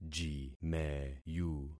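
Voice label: "jimeyu", concatenated syllables; Affixing experiment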